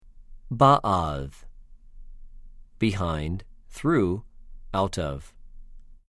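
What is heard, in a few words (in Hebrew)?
"בְּעַד", Hebrew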